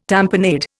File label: "tamponade", Indian